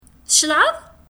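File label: Kabyle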